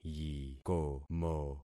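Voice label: "yikomo", concatenated syllables; Affixing experiment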